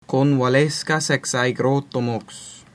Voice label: get well soon, latin; Convalescas ex aegroto mox